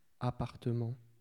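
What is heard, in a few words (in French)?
"appartement" French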